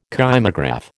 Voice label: "kymograph", American